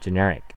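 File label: "generic", American English; pronunciations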